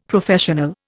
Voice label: "Professional", Indian; Dictionary